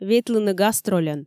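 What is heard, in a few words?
"ветлыны гастрольӧн", Komi, female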